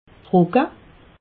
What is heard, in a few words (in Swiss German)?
"trocke", alsace